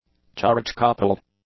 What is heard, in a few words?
"charge-coupled", English; adjectives